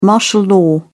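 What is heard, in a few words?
"martial law", British English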